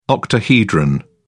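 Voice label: "octahedron", English, British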